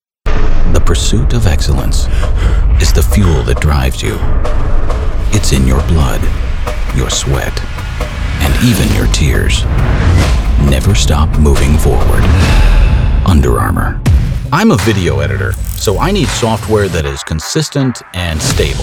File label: commercial